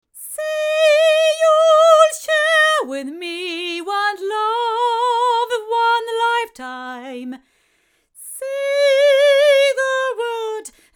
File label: female